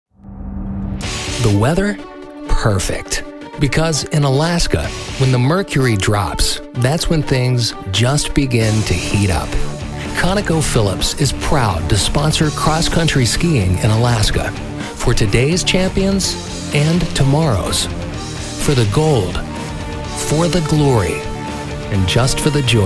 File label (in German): TV Werbespots; Jugendfrei; NATIVE PROFISPRECHER